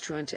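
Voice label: "trying to", Midlands English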